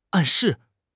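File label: "暗示", Mandarin Chinese; HSK; HSK6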